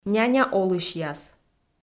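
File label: "няня олысьяс" Komi-Zyrian, female; kpv audio dict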